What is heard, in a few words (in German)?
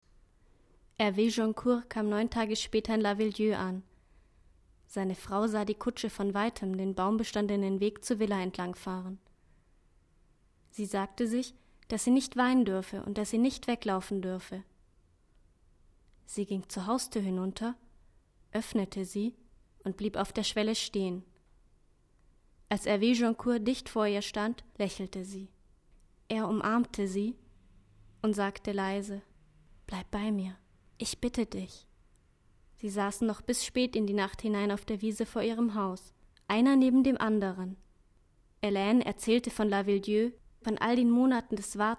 hoerbuch